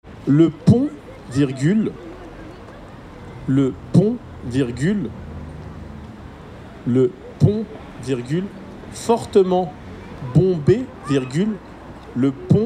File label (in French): dictee